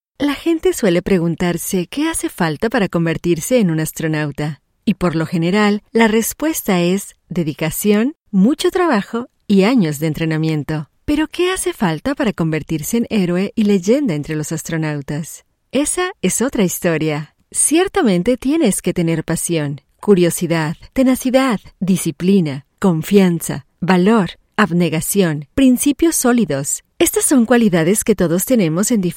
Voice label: Spanish; Kennedy Space Center